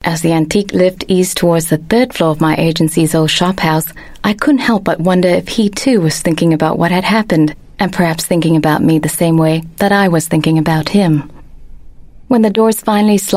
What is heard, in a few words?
Asian English, English Singaporean Female; KissTell